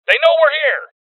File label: Voices Alarm State Male; rebel cops 2019 gamerip